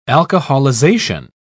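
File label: "alcoholization", British English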